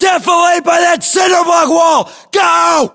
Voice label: US order cover wall cinderblock, battlechatter, english